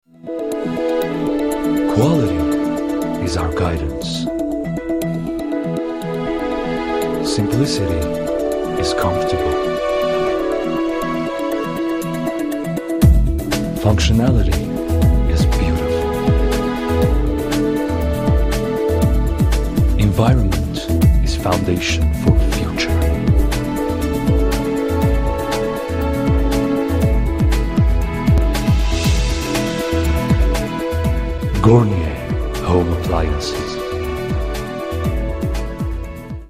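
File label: Armenian, Accented English; GoLocalise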